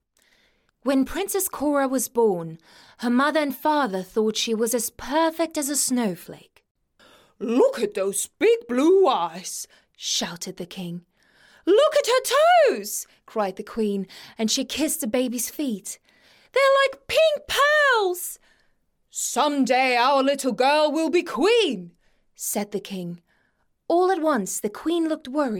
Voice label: female German Russian and Ukrainian English with slight undetectable; accent; voices; devine voices